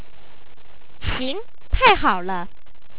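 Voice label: xi2ngta4iha3ole, Chinese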